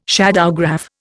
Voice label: "shadowgraph", Indian

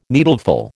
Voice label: "needleful", American

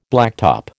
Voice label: "blacktop", American

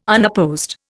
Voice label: "unopposed", Indian

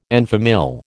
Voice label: "en famille", American

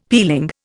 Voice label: "peeling", Indian; Dictionary

